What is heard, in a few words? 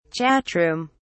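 "chatroom", British English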